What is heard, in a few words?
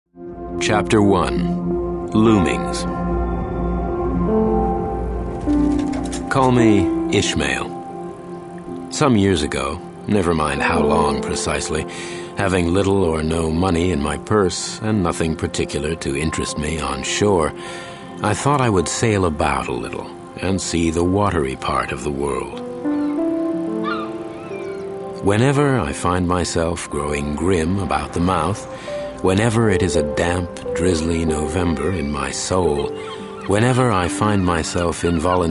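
American English Audiobook; GoLocalise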